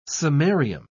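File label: "samarium", British English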